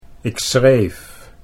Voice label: "ik schreef", Dutch